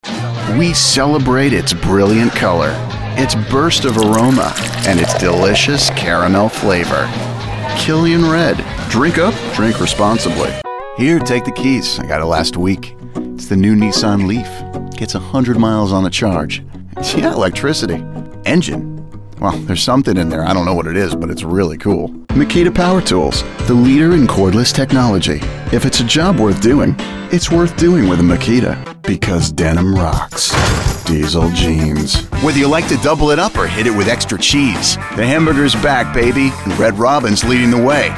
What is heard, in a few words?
Commercial